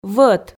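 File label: "вӧт", Komi, female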